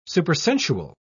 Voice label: "supersensual", en uk

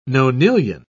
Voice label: "nonillion", en uk

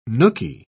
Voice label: "nookie", en uk

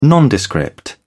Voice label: "nondescript", en uk

